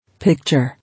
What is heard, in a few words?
"Picture", English